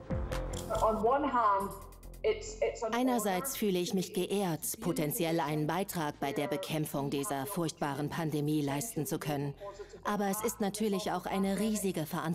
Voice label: Voiceover; WDR